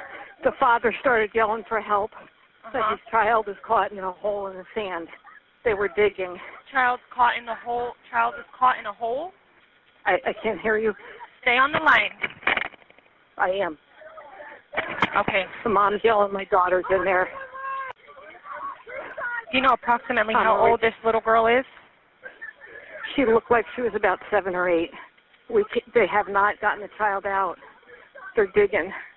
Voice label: BEACH HORROR 911 CALL